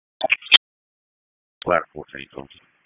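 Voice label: FIRE Operations, p25; FIRE OPS 2; wake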